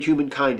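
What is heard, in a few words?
"humankind", American English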